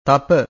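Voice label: "tapa", Pali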